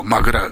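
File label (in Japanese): "magurau", 南山形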